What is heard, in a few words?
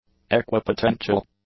"equipotential", English; adjectives